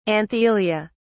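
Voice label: "anthelia", British English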